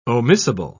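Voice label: "omissible", English, British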